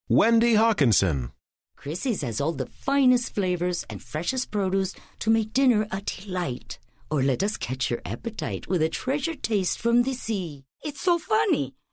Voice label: Spanish accent

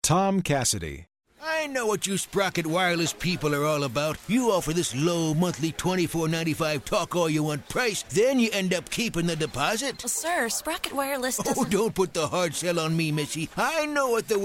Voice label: OLD GEEZER

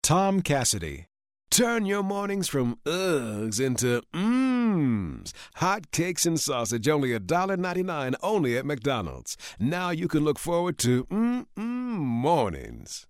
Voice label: urban

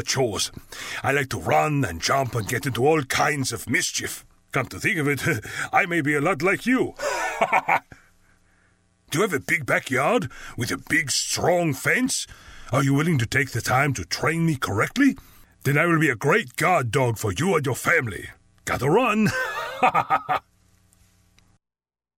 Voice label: GERMAN ACCENT

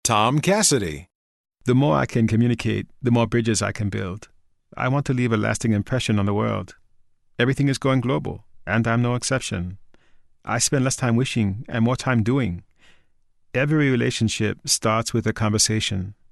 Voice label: Asian Accent

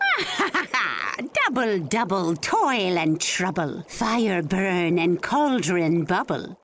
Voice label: Witch

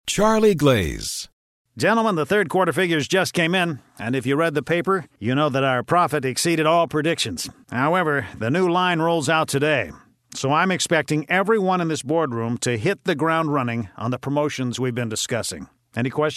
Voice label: COMMANDING, Commanding Commander

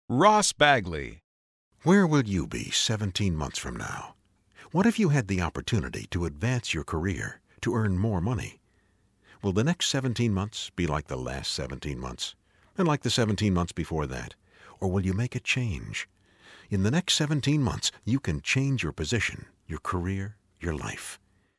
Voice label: smooth; Soothing

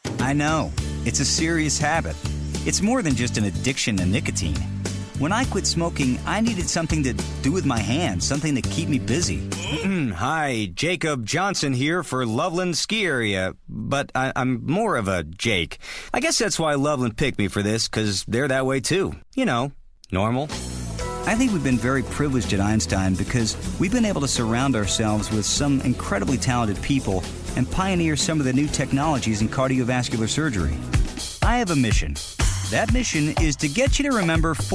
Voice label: RP

